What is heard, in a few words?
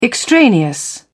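"extraneous", British English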